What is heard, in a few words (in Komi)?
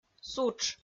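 "сутш", Komi, female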